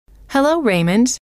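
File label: "hello", female; trainer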